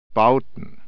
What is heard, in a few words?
"built", german